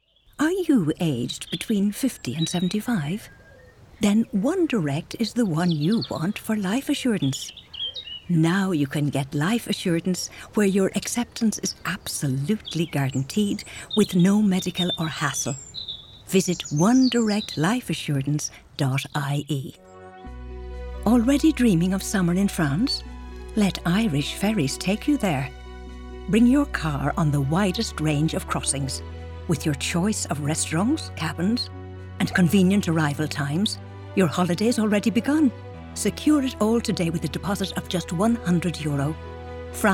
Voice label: Commercials

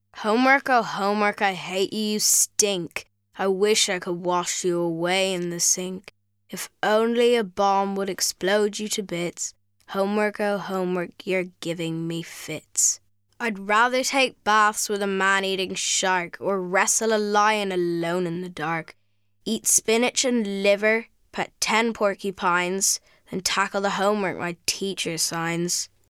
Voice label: Narration

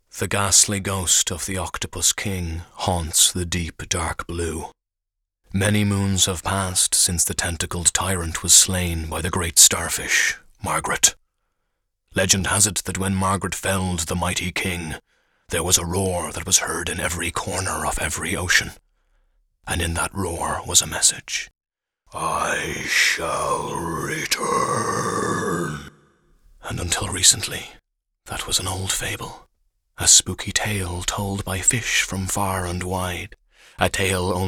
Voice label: Narration

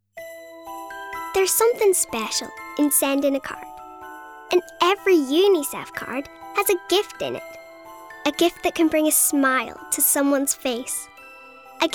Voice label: Commercial

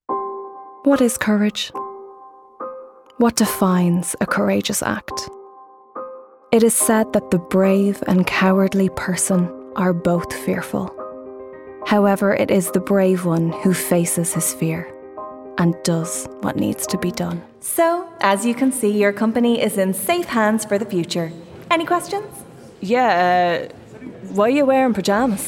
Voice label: Commercial

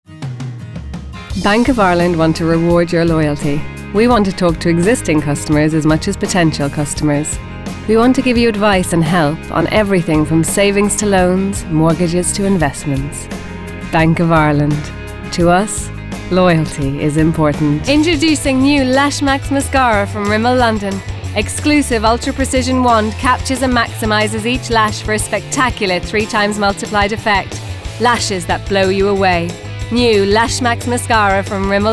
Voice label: Commercial VO

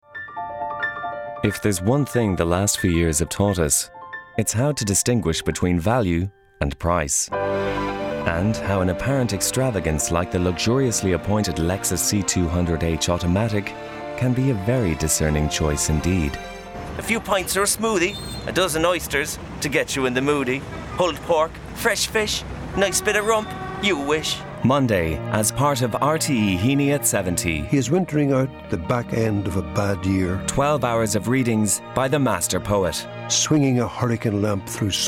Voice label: Radio Reel

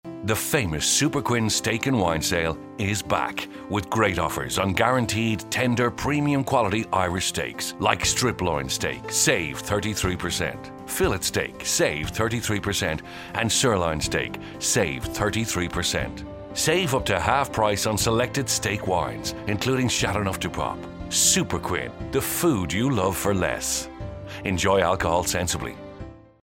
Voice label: superquinn ad

